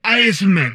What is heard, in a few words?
humanoid portrait male; Stellaris; humanoids